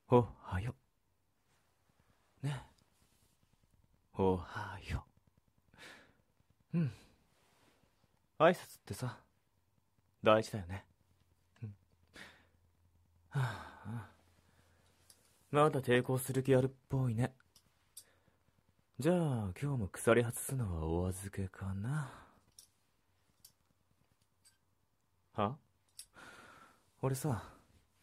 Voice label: 女性向け ヤンデレ ASMRボイス 睡眠・作業用 恐怖; 幼馴染との監禁生活

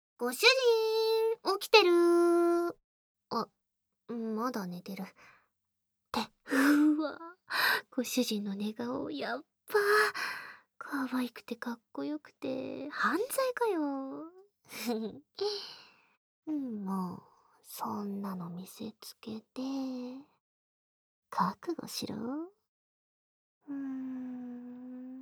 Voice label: ラブ媚び目覚ましボイス

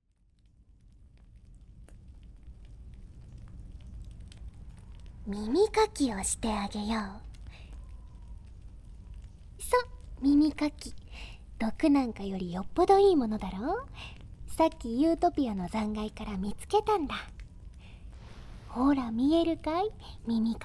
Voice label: 耳かきもしてあげよう【耳かき・吐息】, ASMR; 今日は特別に

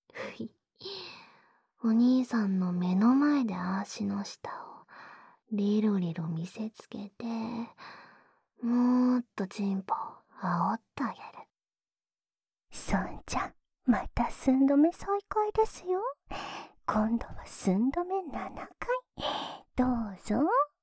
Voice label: ノルマ式寸止めとベロ見せつけと咀嚼音耳舐めで危機一髪, ASMR

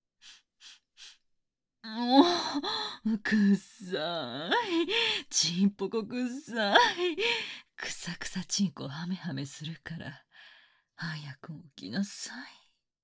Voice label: アラーム 姉

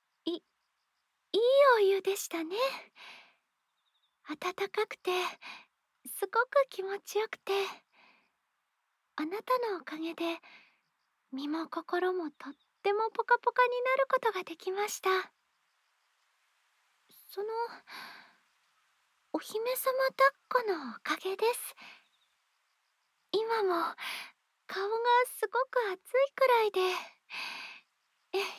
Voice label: 添い寝, ASMR; 乃木坂春香

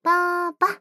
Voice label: "パ～パ" 楽しそうに呼びかけ; アラーム音

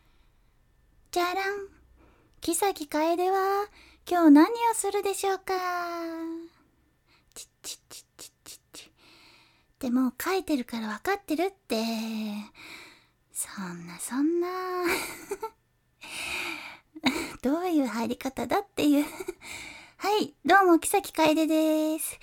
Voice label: 自己紹介, ASMR

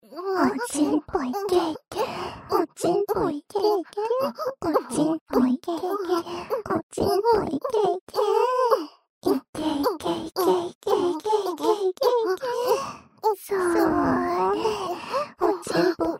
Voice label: 射精しちゃえ～～♪＋オホ喘ぎ; おまけループ（オナサポ）; メスガキるびぃに馬鹿にされたい！; SE無

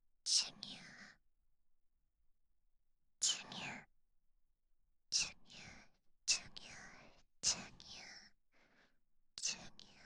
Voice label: 囁き淫語連呼; SEなし

